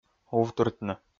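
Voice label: "увтыртны", Komi, male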